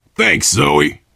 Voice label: thanks, player survivor voice biker

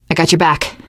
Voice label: im with you, teengirl; survivor voice

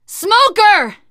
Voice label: warn smoker, teengirl; survivor voice

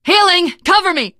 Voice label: cover me, teengirl; source engine; left 4 dead 2; survivor

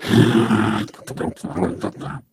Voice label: impatient

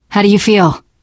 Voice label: revive friend, teengirl; Left 4 Dead 2; Source Engine; video games

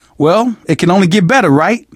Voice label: zombie generic short, survivor voice

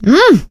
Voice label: positive noise; source engine; left 4 dead 2; survivor; producer

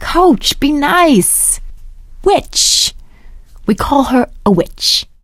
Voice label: heard witch too, survivor voice; source engine; left 4 dead 2; producer

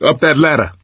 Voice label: misc directional, coach, survivor voice

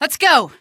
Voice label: let's go, female; Source Engine; video game; npc

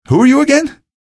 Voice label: greet, male; video games; npc; source engine; episodic; episode 1

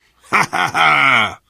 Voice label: taunt, biker; voice

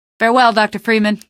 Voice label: gunboat farewell, female; Source Engine; video game; canals

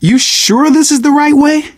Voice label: harbor blocked, survivor voice; left4dead; manager; Source engine

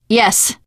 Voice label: yes, teengirl; source engine; left 4 dead 2; survivor

